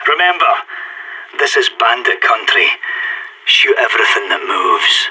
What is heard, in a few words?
pep talk, radio; SAS